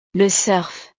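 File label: "le surf", French; deportes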